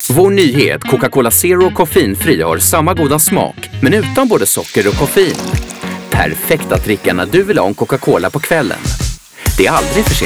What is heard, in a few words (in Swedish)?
Radiospot; Coca Cola Zero Koffeinfri